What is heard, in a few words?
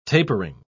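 "tapering", English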